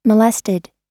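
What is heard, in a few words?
"molested", American English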